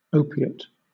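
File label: "opiate", British English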